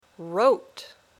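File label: "wrote", English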